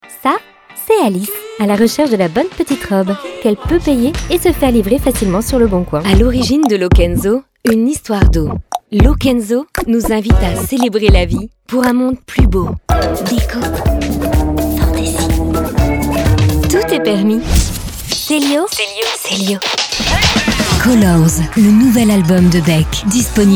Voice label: French Commercials; Vocal Point